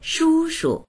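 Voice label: "shu1shu", Chinese; shūshu; 叔叔; おじさん、父親の弟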